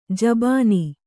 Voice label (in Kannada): "ಜಬಾನಿ", Kannada